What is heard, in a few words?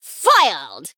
Female Blood Elf Attack